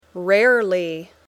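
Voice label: "rarely", English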